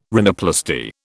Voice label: "rhinoplasty", British